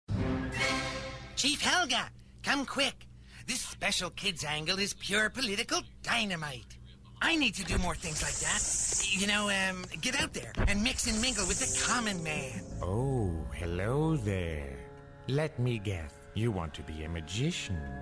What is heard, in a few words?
Animation Demo